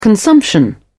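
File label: "consumption", British English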